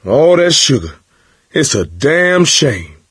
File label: misc directional, coach, survivor voice